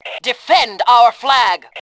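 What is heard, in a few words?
defend flag, voice Fem; Tribes2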